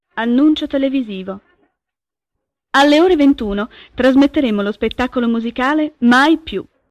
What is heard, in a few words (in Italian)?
annuncio televisivo